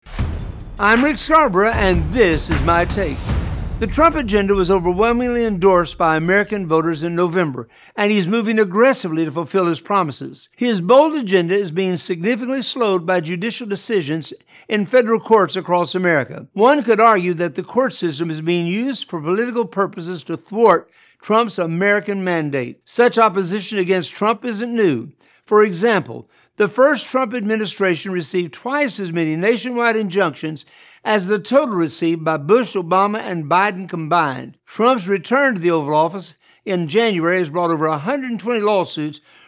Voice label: radio spot